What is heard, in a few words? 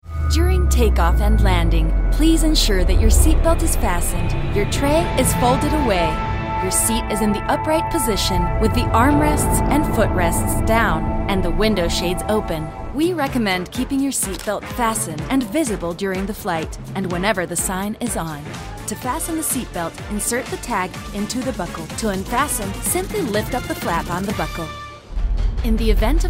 Clara, EN